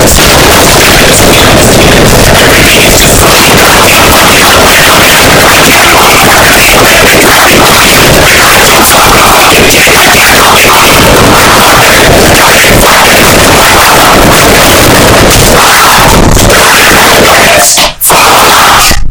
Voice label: rage